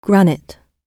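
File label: "granite", British English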